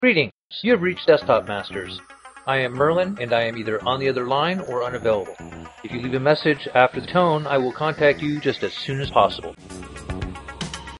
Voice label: Voicemail